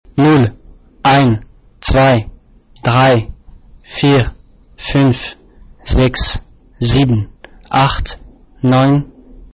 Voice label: count german